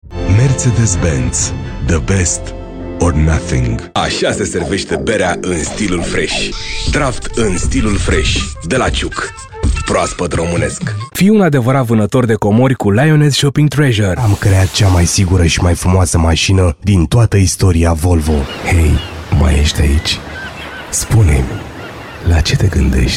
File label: Voice Romanian